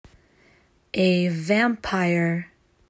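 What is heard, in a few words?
"A vampire", English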